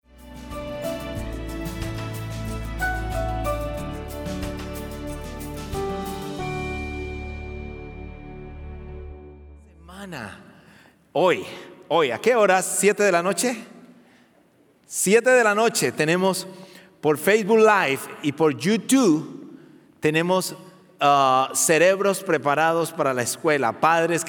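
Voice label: SPA SERMON, Spanish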